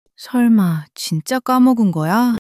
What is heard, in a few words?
sadness